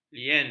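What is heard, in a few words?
"lien", Malay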